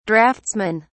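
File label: "draughtsmen", British English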